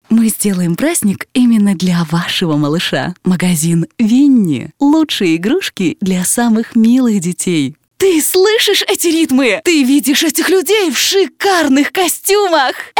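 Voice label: Russian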